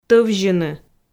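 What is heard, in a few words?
"тӧвзьыны", Komi, female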